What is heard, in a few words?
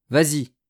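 "vas-y", French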